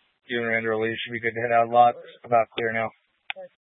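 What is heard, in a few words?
Incident Tac 3, Scanner audio recording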